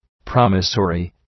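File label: "promissory", English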